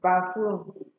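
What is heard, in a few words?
"bafroom", Nigerian Pidgin, female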